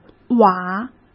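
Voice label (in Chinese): "瓦", Mandarin Chinese; hsk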